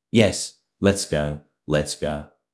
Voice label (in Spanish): Voice Acting es M